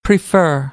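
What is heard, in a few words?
"prefer", American English; AmericanBritish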